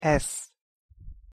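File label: "sz", Hungarian pronunciation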